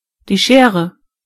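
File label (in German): "scissors", German; gcompris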